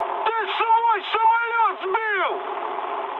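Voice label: player killed ally, mood high, Russian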